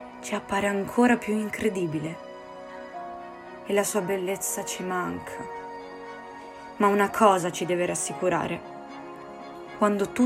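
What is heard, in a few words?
Italian General; GoLocalise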